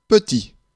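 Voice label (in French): "petit", French; Mots francais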